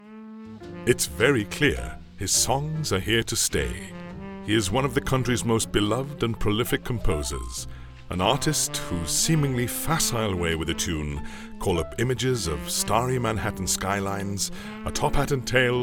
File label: English Voice Over